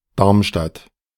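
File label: "Darmstadt", German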